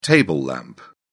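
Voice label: "table lamp", British English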